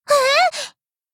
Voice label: shock